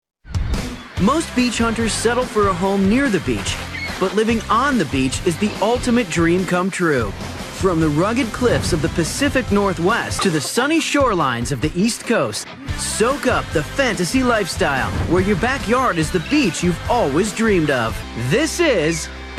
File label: Narration